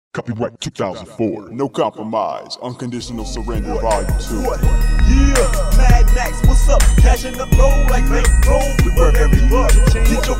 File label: Commercial